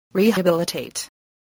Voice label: "rehabilitate", spelling voice